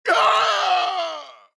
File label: Pain Death